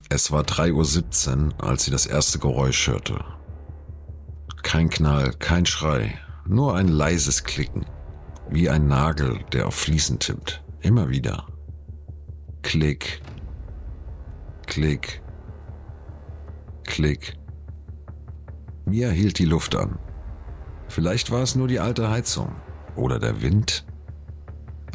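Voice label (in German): Hörbuch psycho deutsch; The Marvelous Mrs. Maisel - Amazon Video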